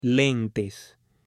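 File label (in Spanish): "lentes", Spanish, male; ROPA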